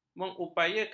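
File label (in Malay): "mengupayakan", Malay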